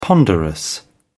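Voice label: "ponderous", British English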